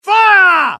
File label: alert, dialogue UK; mohaa